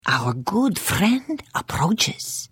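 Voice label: Hello, Khajiit female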